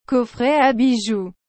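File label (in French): "coffret a bijoux", French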